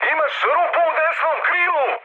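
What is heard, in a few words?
Serbian right wing mood high; War Thunder; speech srb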